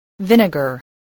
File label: "vinegar", English